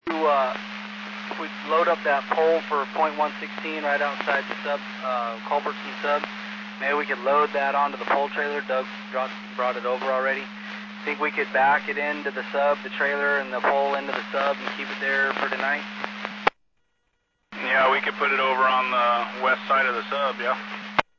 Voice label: Medevac, scanner; AirEvac PhxT